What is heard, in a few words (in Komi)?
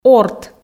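"орт", Komi, female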